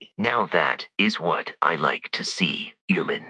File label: happy, speech; fertig classic games